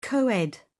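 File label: "coed", English, British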